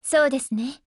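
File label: AGREE, Japanese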